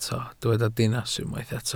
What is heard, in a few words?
"dinas", welsh